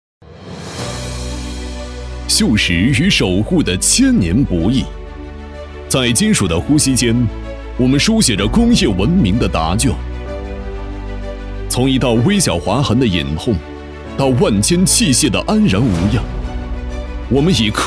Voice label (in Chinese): 男 宣传片 大气; 瑞斯特公司